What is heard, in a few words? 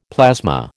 "plasma", American